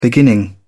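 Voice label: "beginning", en, uk British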